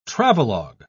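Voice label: "travelog", British English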